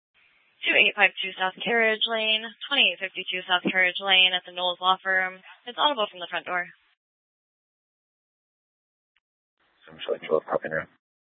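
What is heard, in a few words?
police dispatch, scanner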